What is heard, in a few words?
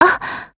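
MOOD Japanese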